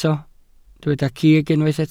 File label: "cig", welsh